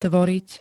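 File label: "tvoriť", Slovak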